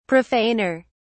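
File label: "profaner", English, British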